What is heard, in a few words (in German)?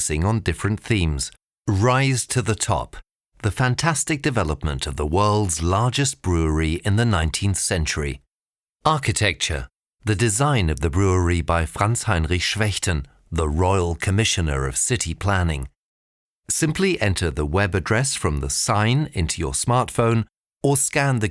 Kulturbrauerei Audioguide